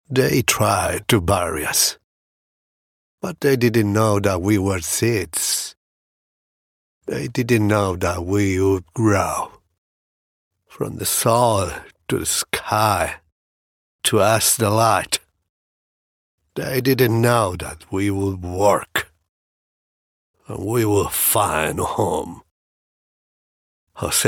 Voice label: english promos

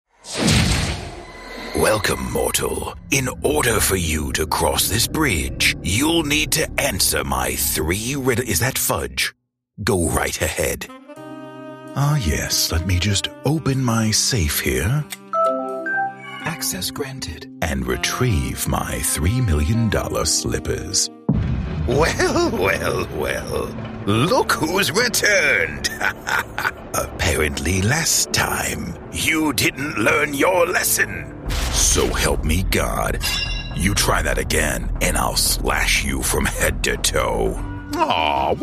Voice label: english american video games

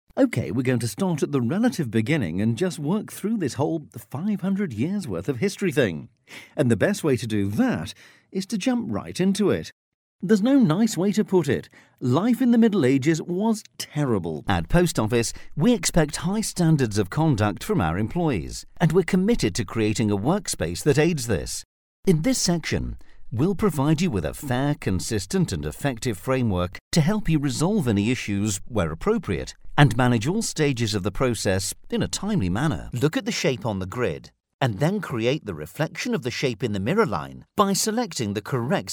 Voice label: english british e-learning

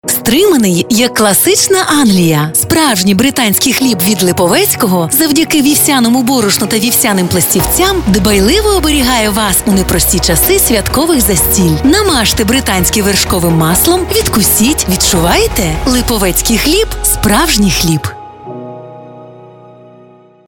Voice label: ukrainian commercial

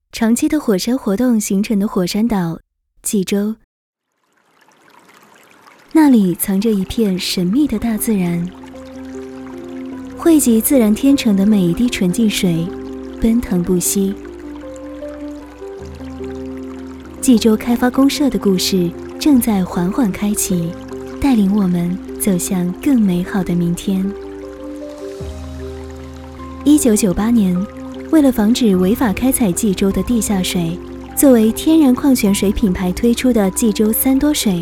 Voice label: chinese mandarin narration

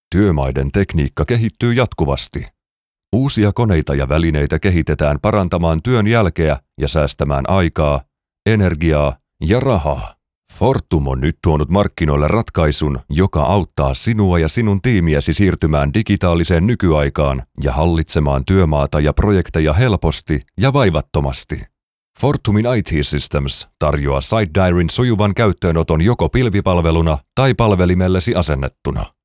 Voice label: finnish documentaries

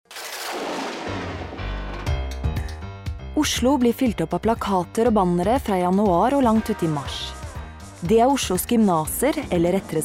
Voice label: norwegian documentaries; norwegian voice actors

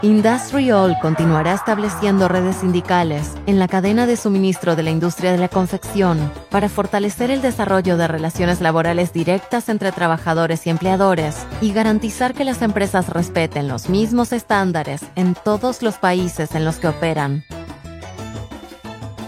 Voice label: spanish latin american

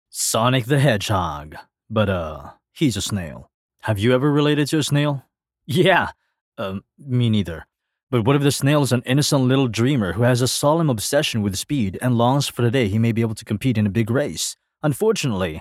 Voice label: english movie trailers

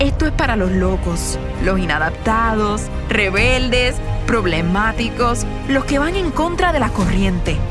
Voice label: spanish puerto rican commercial; american

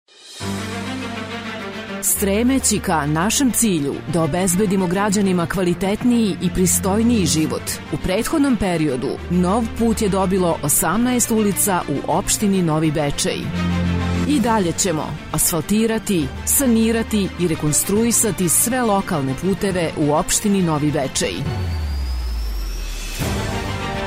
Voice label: Serbian political ads

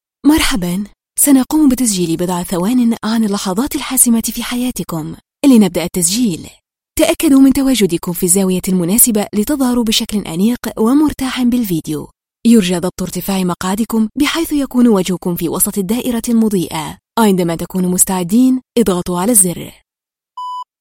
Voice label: Arabic corporate

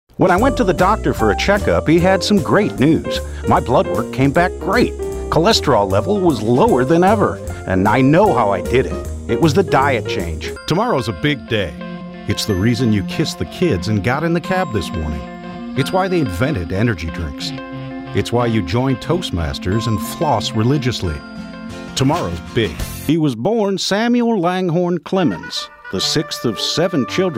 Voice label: english american commercial

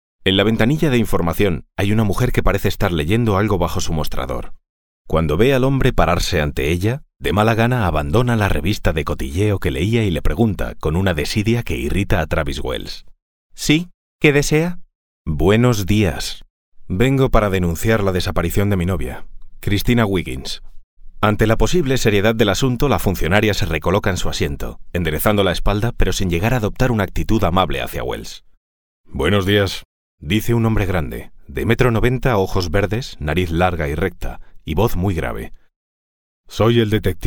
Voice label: spanish castilian audiobooks

